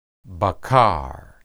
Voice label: "baqar", Hebrew

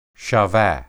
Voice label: "shaba", Hebrew

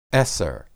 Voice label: "eser", Hebrew

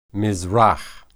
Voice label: "mizrah", Hebrew

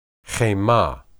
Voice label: "hemah", Hebrew

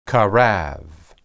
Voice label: "qarab", Hebrew

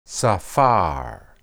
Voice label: "saphar", Hebrew

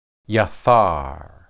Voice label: "yethar", Hebrew

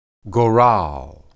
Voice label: "goral", Hebrew

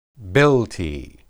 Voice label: "bilti", Hebrew

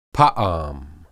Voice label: "paam", Hebrew

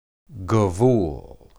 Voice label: "gebul", Hebrew

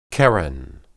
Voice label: "qeren", Hebrew

